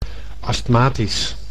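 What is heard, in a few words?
"astmatisch", Dutch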